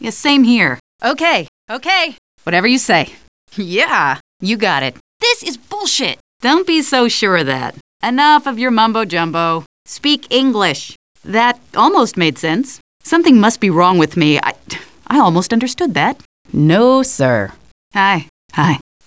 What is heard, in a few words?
female citizen